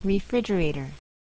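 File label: "refrigerator", English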